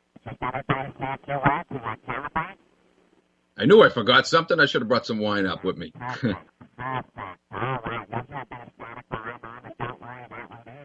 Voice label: bbs radio promo